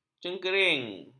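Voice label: "cengkering", Malay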